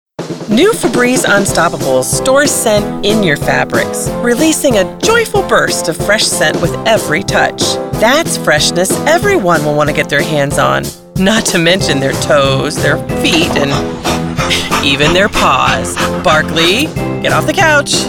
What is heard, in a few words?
Commercial